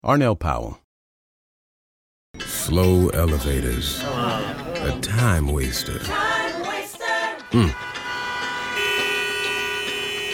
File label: commercial; Innovative LA